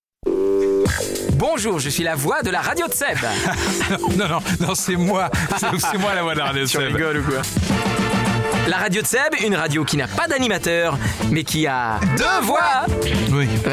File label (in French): La voix de la radio